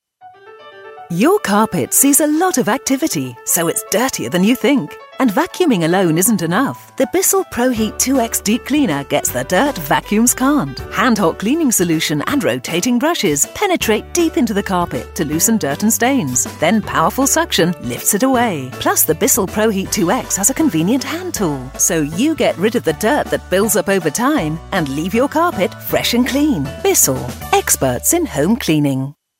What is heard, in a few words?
commercial